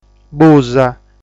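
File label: "Busa", Sardinian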